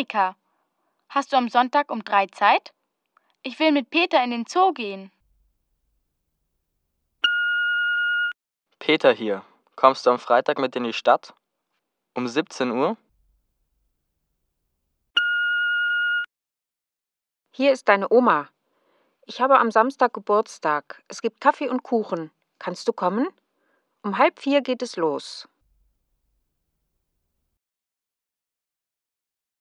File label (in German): Anrufbeantworter